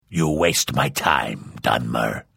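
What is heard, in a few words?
Hello, Vo; Morrowind GOTY GOG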